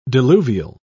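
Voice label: "diluvial", British English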